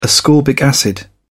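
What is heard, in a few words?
"ascorbic acid", British English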